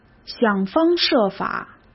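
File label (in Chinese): "想方设法", Mandarin Chinese; chinese-flashcards; hsk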